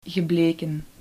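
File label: "gebleken", Dutch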